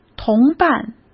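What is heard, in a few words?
"同伴", Mandarin Chinese; chinese flashcards; hsk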